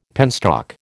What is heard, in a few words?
"penstock", American